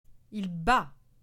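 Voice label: "il bat", French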